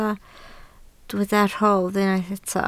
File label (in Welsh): "rodd", Welsh